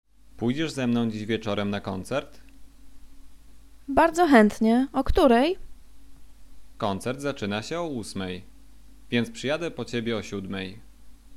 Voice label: conversation; lektorek; firstyear